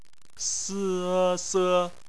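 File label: "se" bopomofo, Chinese; BPMF spelling; phonetics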